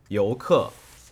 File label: "Youke", Chinese